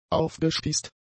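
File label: "aufgespießt", German; adjectives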